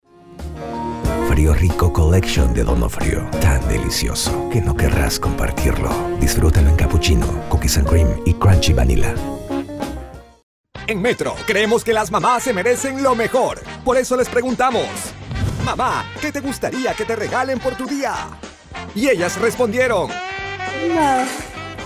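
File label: Spanish Latin America male voice over, sales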